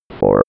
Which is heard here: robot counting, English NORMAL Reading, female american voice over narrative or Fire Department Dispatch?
robot counting